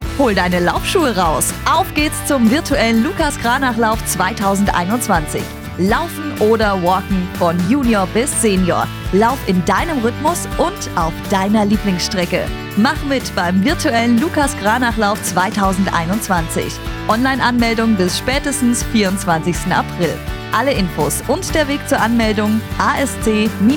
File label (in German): Radio Spot